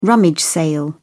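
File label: "rummage sale", English, British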